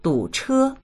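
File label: "du3che1", Mandarin Chinese; 堵车; 渋滞（する）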